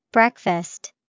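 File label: breakfast, English vocabulary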